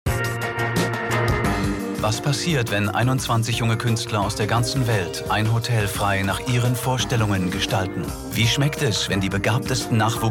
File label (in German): Werbung; VW Projekt Fox